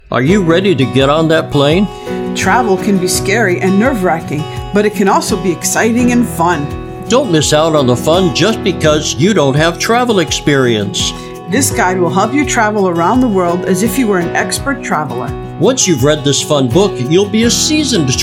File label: Radio Spot; Traveling Boomers